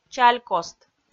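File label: "чалькост", Komi, female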